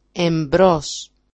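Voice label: "embrós", Greek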